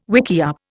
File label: "wickiup", Indian